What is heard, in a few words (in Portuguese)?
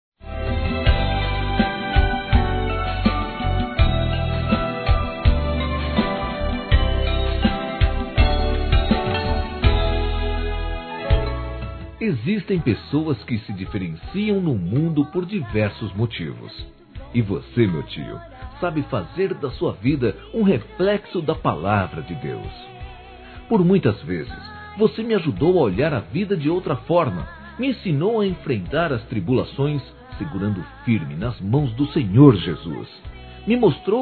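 TIO Masculino, Aniversários; ANIV. Evangelico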